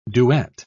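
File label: "duet", English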